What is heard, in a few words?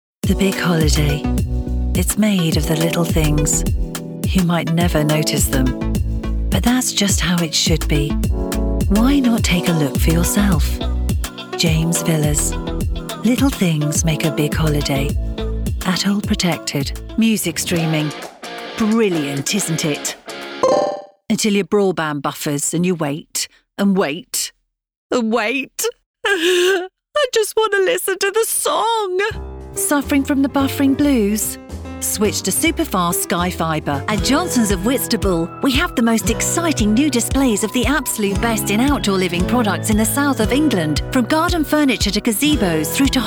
Voice Actor, en-GB; Big Mouth Voices